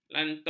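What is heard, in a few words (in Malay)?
"lantung", Malay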